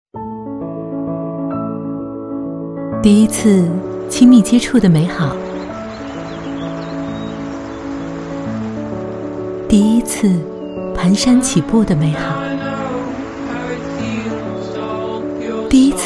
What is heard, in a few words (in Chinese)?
女 品牌广告 温馨 母婴奶粉; 海普诺凯